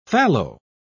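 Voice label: "fallow", English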